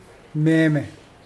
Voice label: chew, Mokilese